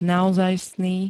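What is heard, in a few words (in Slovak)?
"naozajstný", Slovenské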